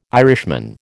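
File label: "Irishman", American English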